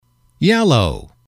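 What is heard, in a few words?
"yellow", male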